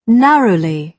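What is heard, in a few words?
"narrowly", British English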